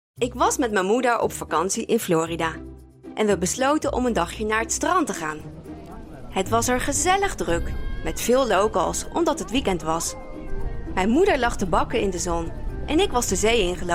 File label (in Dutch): Educatie YouTube, voice